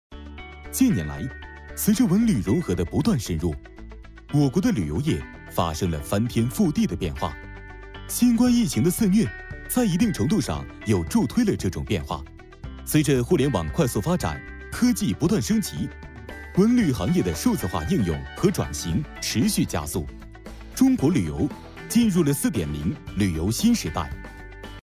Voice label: 男 专题配音 科技感; 年轻全能; 素人自然

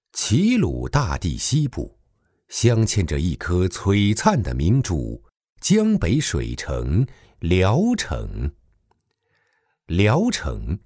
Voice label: 男 纪录片; 中英双语; 大气浑厚; 聊城之美; 模仿任志宏

